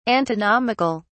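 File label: "antinomical", British English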